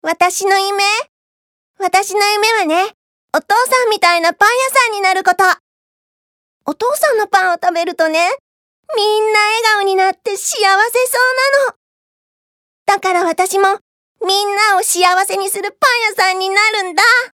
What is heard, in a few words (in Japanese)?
セリフ 女の子